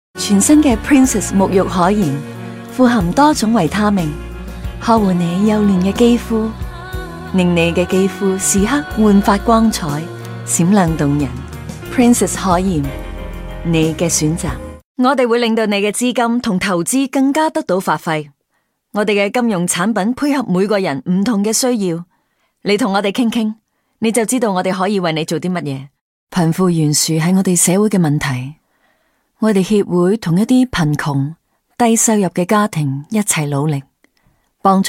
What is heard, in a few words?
Canto